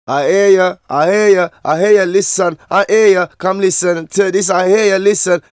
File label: Vocals; Jungle Frenzy 1